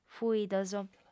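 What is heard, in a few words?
"fuidos", Sardinian, female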